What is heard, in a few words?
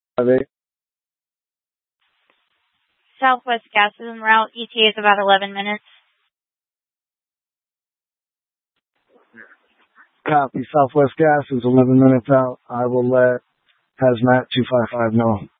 Fire Departments, scanner